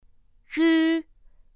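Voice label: "r", Mandarin Chinese pinyin; pinyin2; pinyinji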